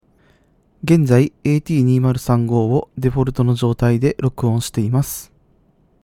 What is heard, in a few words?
at2035 default